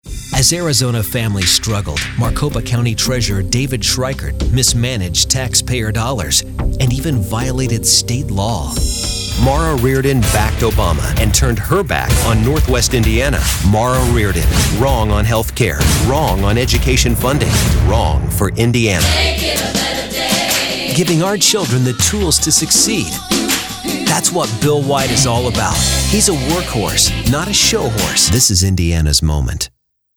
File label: political men